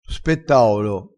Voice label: "spettaolo", vocabolario dialettale crespinese

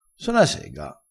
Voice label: so na sega, vocabolario dialettale

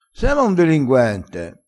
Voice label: "se ma", vocabolario dialettale

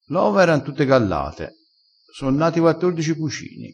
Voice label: "gallato", dialettale crespinese

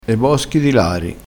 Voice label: "boschi di lari", crespinese

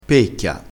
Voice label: "pecchia", crespinese

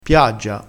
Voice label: "piaggia", crespinese

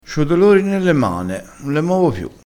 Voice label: "dolori", crespinese

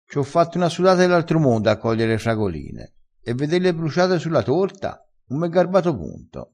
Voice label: "dell artro mondo", crespinese

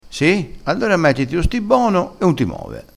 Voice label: "firmino", crespinese

